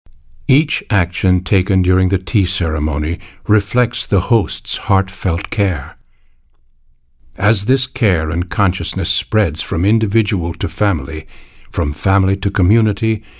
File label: Narration English Male